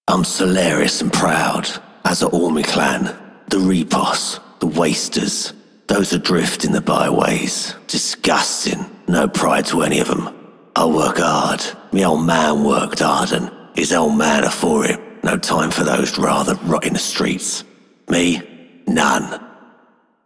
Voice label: Male Worker, Male One, en; Dialog; Warframe; Solaris Venus